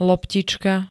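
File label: "loptička", Slovak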